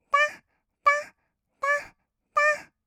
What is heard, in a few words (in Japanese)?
だっだっ, kawaii voice, 合いの手; 1200 kawaii voice!!; ASMR